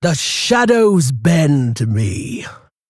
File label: Female Orc Play; SC Warlock